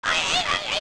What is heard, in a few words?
Twinrova Argue; OOT